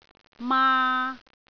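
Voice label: "maa3", Cantonese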